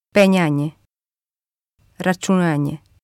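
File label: "penjanje", Bosnian; računanje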